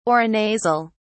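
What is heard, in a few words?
"aurinasal", British English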